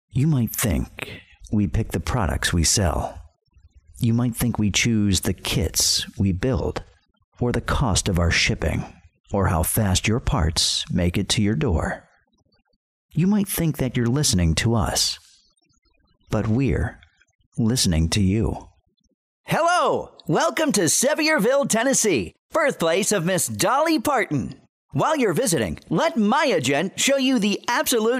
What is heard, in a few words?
Commercial Male; commercial voiceover